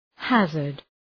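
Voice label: "hazard", English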